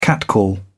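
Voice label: "catcall", British English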